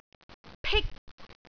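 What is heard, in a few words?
"pik1", Cantonese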